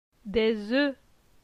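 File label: "Des oeufs", French; phonetique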